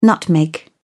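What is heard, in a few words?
"nutmeg", English, British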